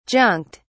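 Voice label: "junked", British English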